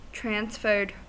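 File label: "transferred", US English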